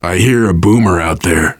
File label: heard boomer, survivor voice, biker; Left 4 Dead 2